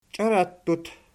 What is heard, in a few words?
"chiorattut", Archi